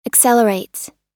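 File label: "accelerates", US English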